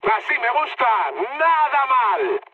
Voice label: trick, mood high, speech; War Thunder